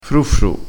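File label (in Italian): "fru fru", vocabolario dialettale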